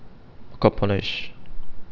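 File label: "accomplish", Chinese male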